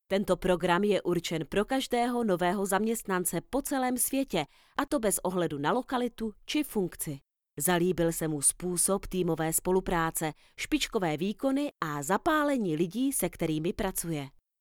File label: Czech elearning sample